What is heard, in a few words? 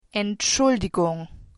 sorry, german